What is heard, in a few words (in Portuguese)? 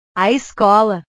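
"a escola", Portuguese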